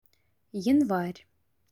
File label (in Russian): Январь, русский